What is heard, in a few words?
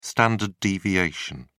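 "standard deviation", British English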